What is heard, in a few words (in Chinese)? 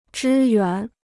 "支援", Chinese